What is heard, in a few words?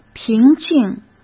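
"平静", Mandarin Chinese; chinese flashcards; hsk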